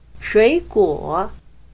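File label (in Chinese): "水果", Chinese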